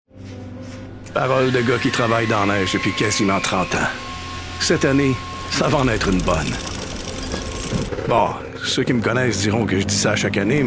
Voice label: French Commercial; TaDa